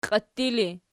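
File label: "qiitti" ergative, Archi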